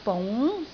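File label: "bung2", Cantonese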